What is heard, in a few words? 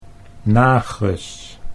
"nages", Dutch